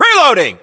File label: inform reloading generic, US